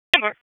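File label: Raleigh Police Southwest District Dispatch; wake; p25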